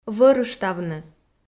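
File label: "вӧрыштавны", Komi, female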